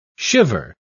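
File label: "shiver", English word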